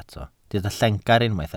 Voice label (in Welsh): "llengar" Welsh